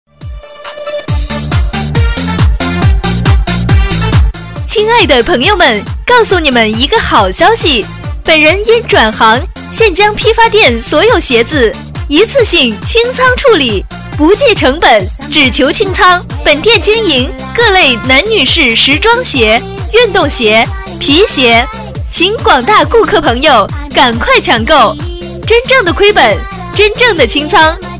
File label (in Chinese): 女 鞋子转行清仓, 国语女声配音 彩铃广告